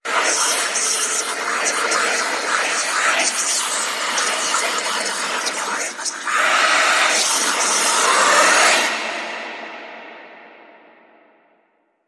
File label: Vengeful Whispers Demon Speech